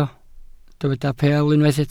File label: "peel", Welsh